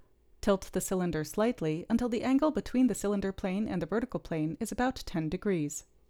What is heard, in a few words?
Gong Voiceover